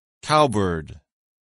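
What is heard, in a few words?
"cowbird", English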